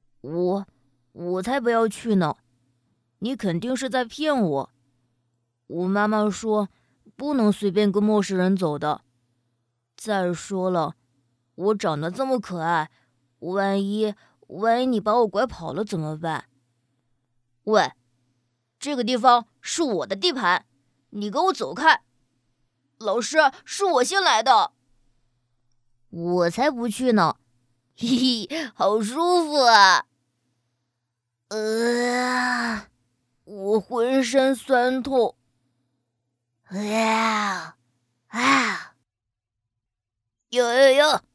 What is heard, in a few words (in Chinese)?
女, 模仿 正太